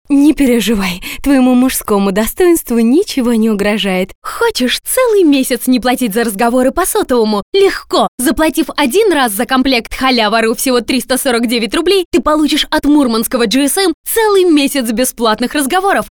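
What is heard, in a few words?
Russian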